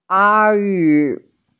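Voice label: "ayi", Mandarin Chinese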